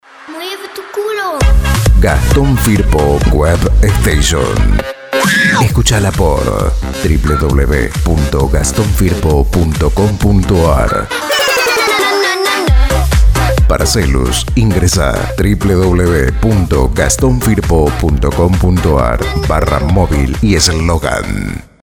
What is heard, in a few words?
spot